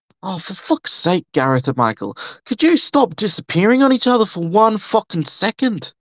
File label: voice message